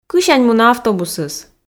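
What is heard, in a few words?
"кысянь мунӧ автобусыс", Komi, female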